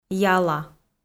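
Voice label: "яла", Komi, female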